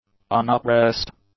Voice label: "unoppressed", English; adjectives